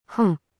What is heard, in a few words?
siri hm; imac